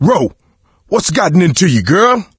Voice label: friendly fire producer, coach, survivor voice; video game; source engine; left 4 dead 2

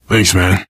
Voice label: thanks, player survivor voice biker

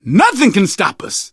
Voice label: hurrah, survivor voice; source engine; left 4 dead 2; manager

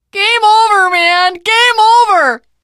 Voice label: generic responses, teen girl, voice; survivor voice

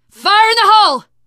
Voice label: grenade, teen girl voice; source engine; left 4 dead 2; survivor

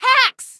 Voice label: hacks, female; Source Engine; video game; npc

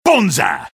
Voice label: sniper taunt rps win

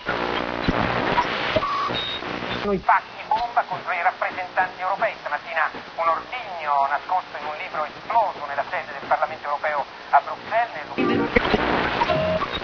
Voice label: italian radio, chatter; Source Engine; cstrike

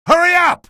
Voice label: hurry up, male; video games; npc; source engine; episodic; episode 1

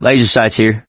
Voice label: laser sights, mechanic, survivor, voice; source engine; left 4 dead 2

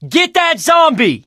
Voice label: fallen survivor, survivor voice; Left 4 Dead 2; Source Engine; video games

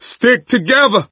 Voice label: misc directional, coach, survivor voice

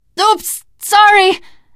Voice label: sorry, teen girl voice; source engine; left 4 dead 2; survivor

